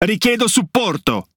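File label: Italian speech Quick Commands Need Support; World Of Warships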